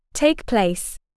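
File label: "take place", English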